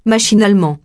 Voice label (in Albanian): "machinalement", frengjisht